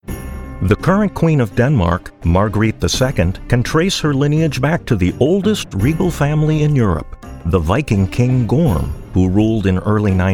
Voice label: Narration